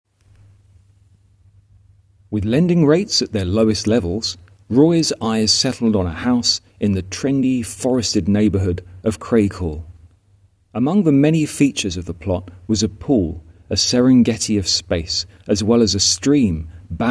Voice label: voiceover